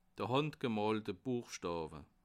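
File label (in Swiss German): "handgemoolte", Bas Rhin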